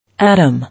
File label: "Atom", English